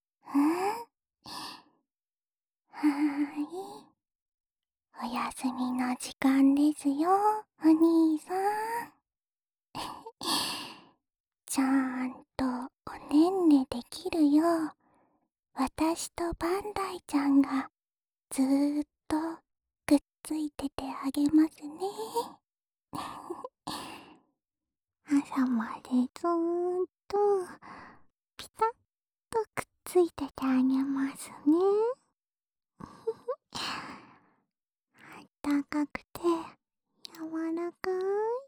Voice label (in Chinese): 安眠用陪睡循环音轨, ASMR